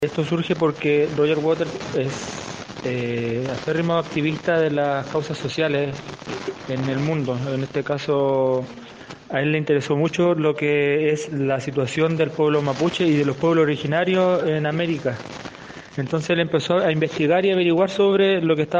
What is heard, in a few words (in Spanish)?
Cuña